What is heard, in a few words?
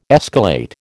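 "escalate", American English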